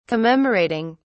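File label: "commemorating", English, British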